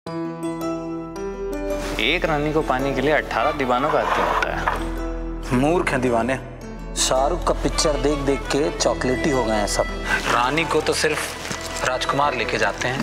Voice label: dialogue Ringtone; Ek rani ko pane ke liye; Chaman Bahaar